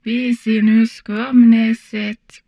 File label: humanoid portrait female; Stellaris